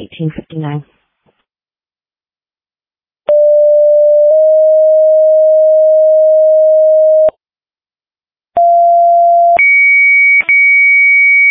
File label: Fire, dispatch